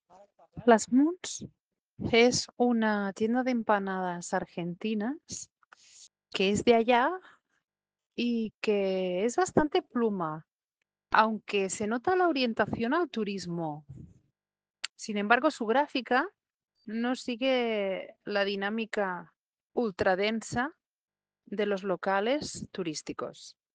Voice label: Voice message